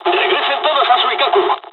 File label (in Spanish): dialogs spanish; War Thunder